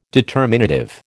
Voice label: "determinative", American